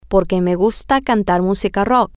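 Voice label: because I like to sing rock music, Spanish, female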